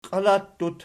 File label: "qialattut", Archi